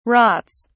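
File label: "rots", British English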